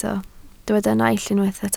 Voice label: "naill", Welsh

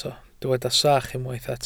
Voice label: "sach", Welsh

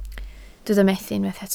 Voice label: "methu" Welsh

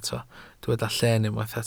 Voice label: "lleen" Welsh

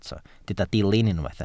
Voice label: "dilyn" Welsh

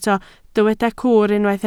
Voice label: "cwr" Welsh

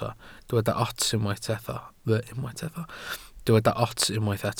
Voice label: "ots", Welsh

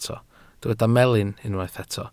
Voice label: "melin", Welsh

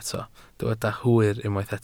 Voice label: "hwyr", Welsh

